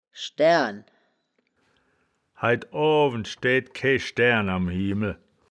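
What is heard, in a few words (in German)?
"schdaeaern", wittlicher woerterbuch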